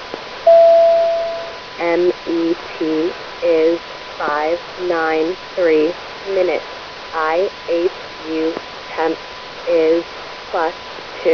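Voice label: arissat voice telemetry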